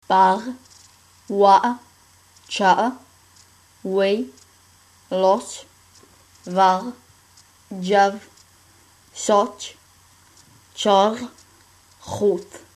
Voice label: numbers klingon